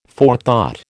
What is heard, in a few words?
"forethought", American